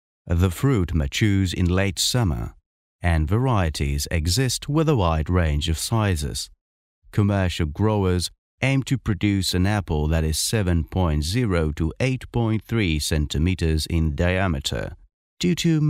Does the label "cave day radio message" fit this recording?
No